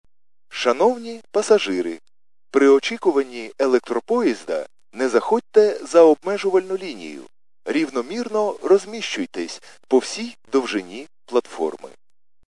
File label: khs st male, subway station